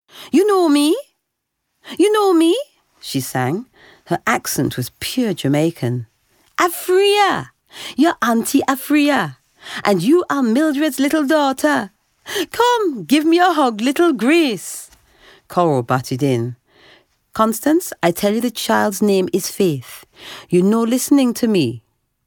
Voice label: Caribbean and African accents Audiobook